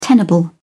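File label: "tenable", British English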